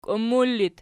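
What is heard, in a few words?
"kiummur" locative, Archi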